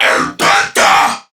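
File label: Attack Crewmen, English; Dialog; Barks; Battle; Warframe; CorpusCrewmen; CrewmanA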